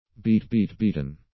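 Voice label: "beat beat beaten", English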